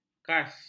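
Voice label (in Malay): "khas", Malay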